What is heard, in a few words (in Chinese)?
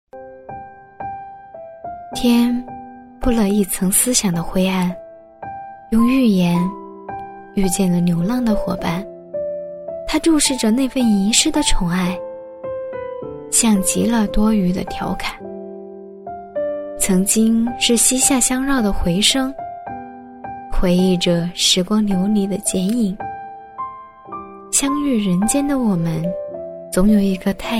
女 微电影 素人自然; 女007-年轻甜美; 甜美亲切